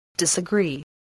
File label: "disagree", spelling